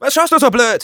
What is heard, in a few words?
taunts, de; Scout